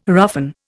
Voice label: "roughen", Indian